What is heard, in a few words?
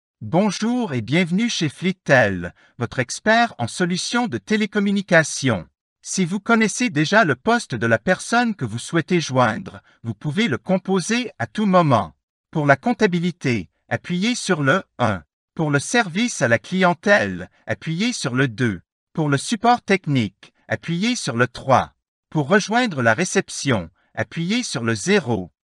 natural voice